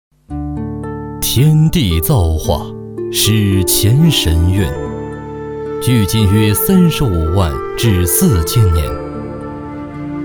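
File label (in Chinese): 国语 男声 专题片-解说- 大气 大气浑厚磁性 沉稳 娓娓道来; 江苏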